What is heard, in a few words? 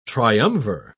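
"triumvir", British English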